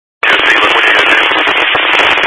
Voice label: Highway Patrol, scanner; DPS